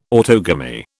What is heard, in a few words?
"autogamy", British